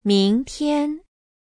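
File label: "mingtian", Chinese; ngay mai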